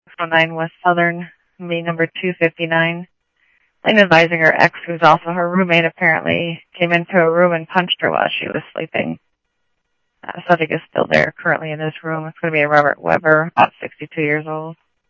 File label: Tempe Police, scanner audio